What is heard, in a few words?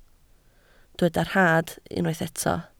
"rhad", Welsh